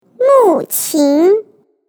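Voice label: Mandarin xylophone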